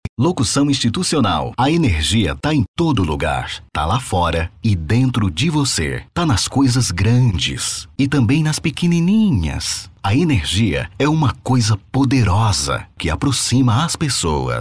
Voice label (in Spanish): locutora